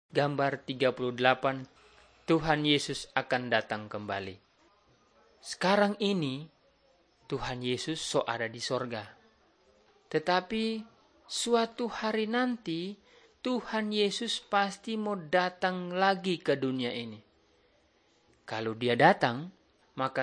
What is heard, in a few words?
malay manado; good news